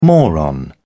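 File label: "moron", British English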